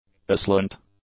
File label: "aslant", English; adjectives